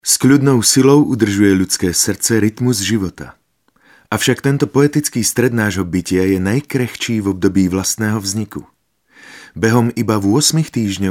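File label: Male Slovak documentary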